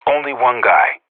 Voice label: radio bot kill count, vo professional; Counter Strike Model Pack; CS:GO